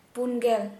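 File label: fourty, Santali, female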